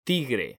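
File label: "tigre", Spanish, male; mekanta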